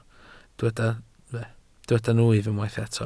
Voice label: "nwyf", welsh